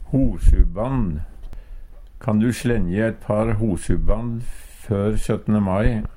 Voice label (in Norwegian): hosuband, Numedalsmål; Kan du slengje eit par hosuband før søttne mai?; Personleg utstyr, klede, sko